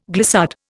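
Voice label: "glissando", Indian; Dictionary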